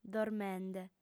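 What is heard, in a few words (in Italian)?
"dormende", lingua sarda, female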